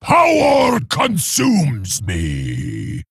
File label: Male Fel Orc Play